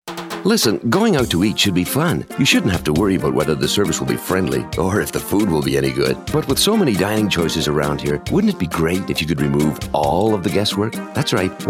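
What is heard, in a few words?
English US Radio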